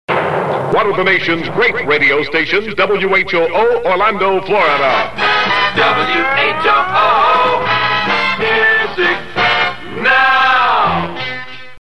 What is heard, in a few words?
Station ID; 1967